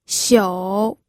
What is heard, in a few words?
"xiu3", pinyin